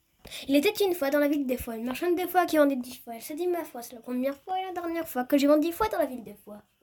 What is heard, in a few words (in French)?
Virelangue